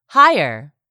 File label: "HIRE", english; 3000 words